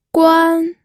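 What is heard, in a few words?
"guan", Mandarin Chinese, first tone; pinyin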